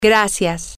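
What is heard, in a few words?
female, spanish; thank You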